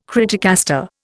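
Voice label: "criticaster", Indian English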